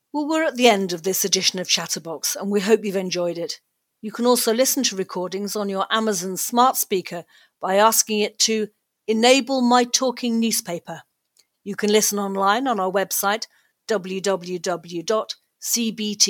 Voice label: Closing Announcement